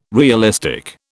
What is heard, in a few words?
"realistic", British